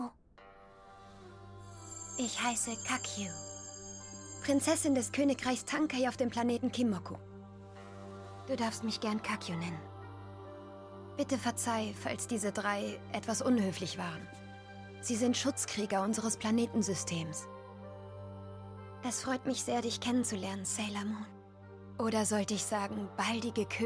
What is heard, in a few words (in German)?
SAILOR MOON II - sanft -Sailor Kakyu (Auszug); animation (österreich) / anime